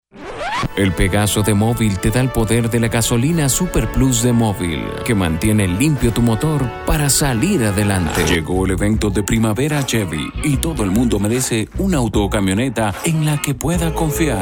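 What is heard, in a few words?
comercial, Venezuelan Spanish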